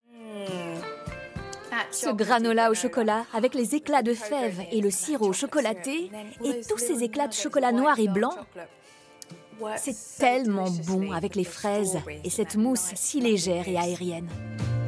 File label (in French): Narration Conclusion; RACHEL KHOOS CHOCOLATE; voix de la serie rachel khoos chocolate